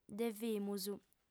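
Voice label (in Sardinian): "devimus", female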